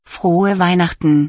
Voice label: christmas, german